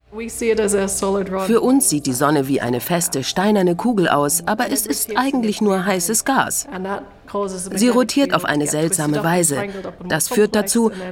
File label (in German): Voice Over, frauen; SWR; Graubünden